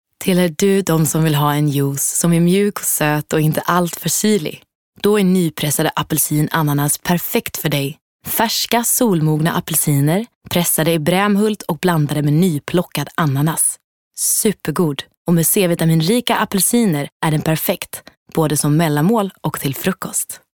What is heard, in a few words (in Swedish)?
reklam